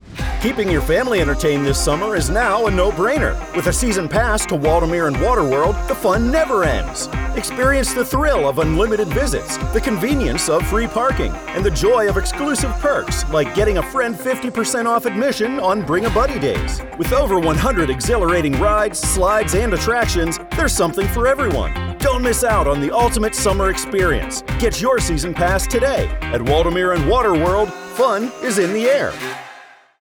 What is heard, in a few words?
Radio Ad; Season Pass No Brainer